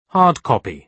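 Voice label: "hard copy", British English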